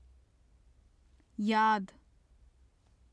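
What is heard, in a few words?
"yad", Urdu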